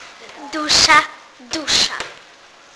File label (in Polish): spirit - dusza, Polish